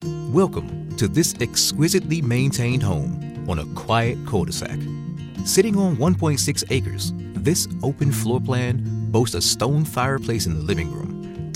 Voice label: english american real estate